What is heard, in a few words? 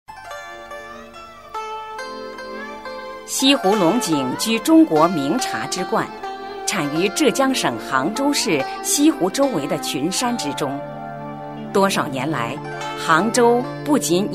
girl